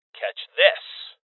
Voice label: Voices Throw Clip Male; rebel cops 2019 gamerip